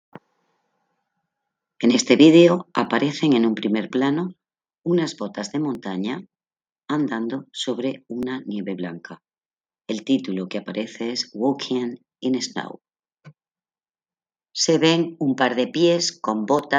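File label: count to five in french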